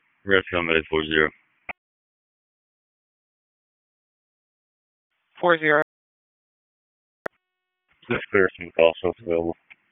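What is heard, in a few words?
EMS Dispatch, p25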